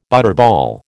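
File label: "butterball", American English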